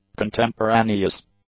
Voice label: "contemporaneous", English; adjectives

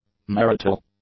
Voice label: "marital", English; adjectives

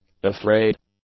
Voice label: "afraid", English; adjectives

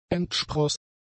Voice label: "entspross", German; adjectives

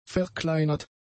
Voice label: "verkleinert", German; adjectives

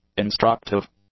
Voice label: "instructive", English; adjectives

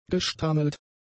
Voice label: "gestammelt", German; adjectives

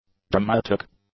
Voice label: "dramatic", English; adjectives

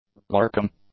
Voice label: "lurking", English; adjectives

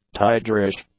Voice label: "tigerish", English; adjectives

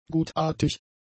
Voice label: "gutartig", German; adjectives

